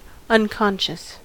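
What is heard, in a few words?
"unconscious", American English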